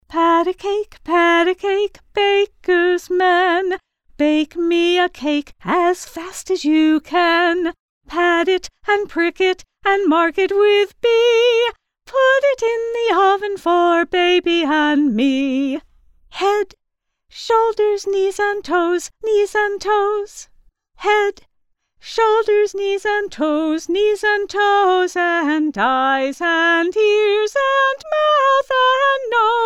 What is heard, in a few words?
Nursery Rhymes